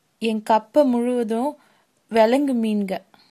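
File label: hovercraft, Tamil, female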